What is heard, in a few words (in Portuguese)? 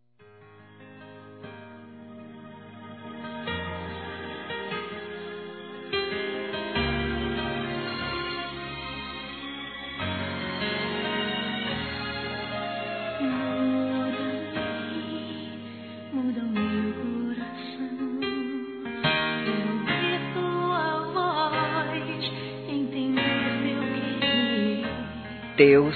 ROM. EVANGELICO F; Aniversários; ANIVERSARIO romantico; fem